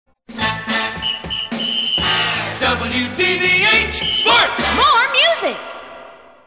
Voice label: sports shout